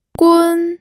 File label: "gun1", pinyin audio